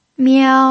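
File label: "miao", first tone, Mandarin Chinese pinyin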